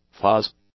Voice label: "fuzz", English; nouns